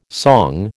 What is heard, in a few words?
"song", American